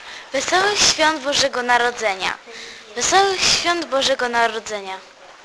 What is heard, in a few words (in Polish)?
Merry Christmas - Wesolych Swiat, Polish